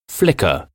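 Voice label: "flicker", English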